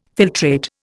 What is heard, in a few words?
"filtrate", Indian